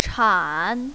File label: "chan3", mandarin, female